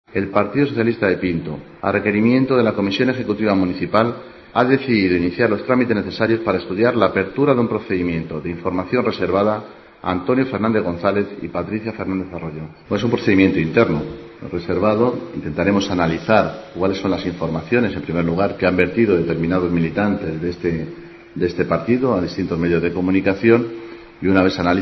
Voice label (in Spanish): noticias